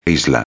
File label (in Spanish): "isla", espanhol; palavra do dia